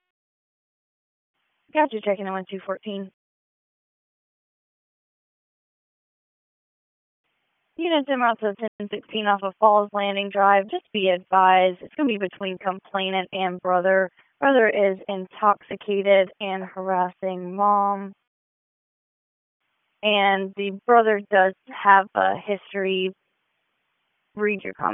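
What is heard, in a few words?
Raleigh Police Northeast District Dispatch; wake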